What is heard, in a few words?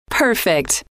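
"perfect", female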